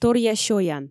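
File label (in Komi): торъя сёян, Komi, female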